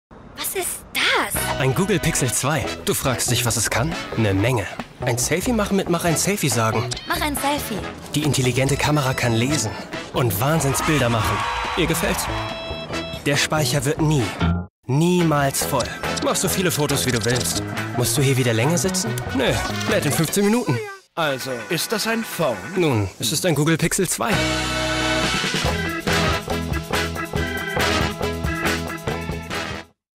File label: COMMERCIAL; Google Pixel 2